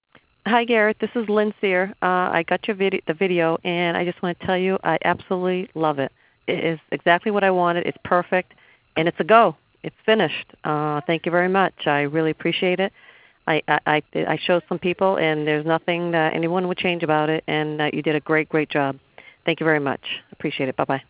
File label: Phone Message